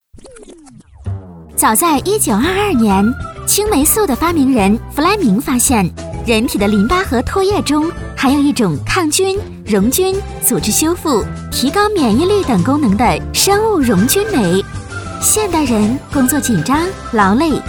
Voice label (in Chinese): 女国 专题 产品 青霉素的发明 轻松